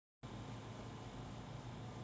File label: voice message